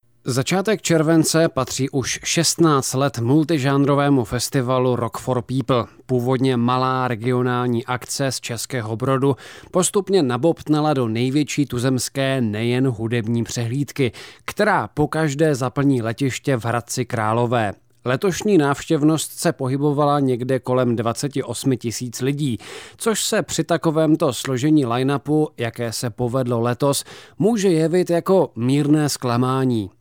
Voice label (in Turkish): Cekce Erkek, seslendirme